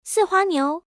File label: female